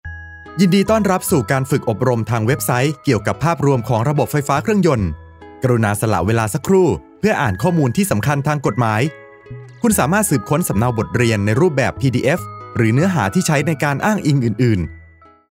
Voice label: Thai formal